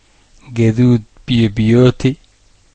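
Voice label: pink, Serbian; gcompris-data; colors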